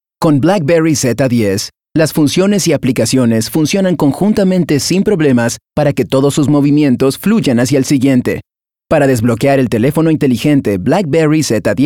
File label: Latin American Spanish Formal Narration